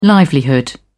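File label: "livelihood", British English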